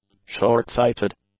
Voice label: "shortsighted", English; adjectives